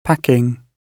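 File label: "packing", British English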